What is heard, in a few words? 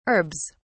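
"herbs", British English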